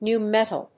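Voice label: "nu-metal", English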